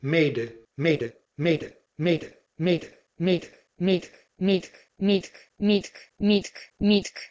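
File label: Proto-Indo-European mede to Armenian mitk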